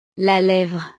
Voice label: "la levre", frances; partes del cuerpo

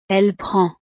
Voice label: "elle prend", frances

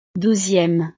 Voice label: "douzieme", frances

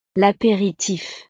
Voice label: "l aperitif", frances; snacks

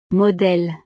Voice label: "modele", frances; profesiones

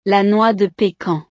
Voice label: "la noix de pecan", frances; frutas

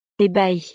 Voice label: "ebahie", frances; estados animo

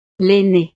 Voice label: "l ainee", frances; familia

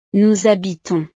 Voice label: "nous habitons", frances

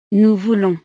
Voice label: "nous voulons", frances

